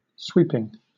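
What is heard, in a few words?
"sweeping", British English; pronunciations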